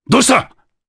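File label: Vox, voices, Japanese; kingsraid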